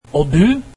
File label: and you, norwegian